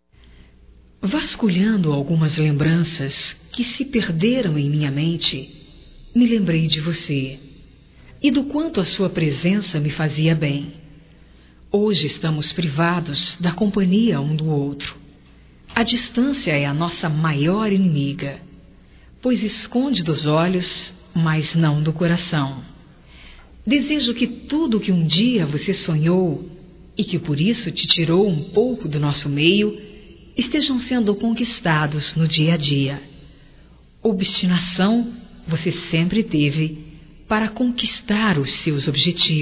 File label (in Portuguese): SAUDADE ROMÂNTICA, fem; Românticas